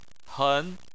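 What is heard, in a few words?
"hen", Chinese, second tone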